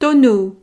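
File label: "tonneau", French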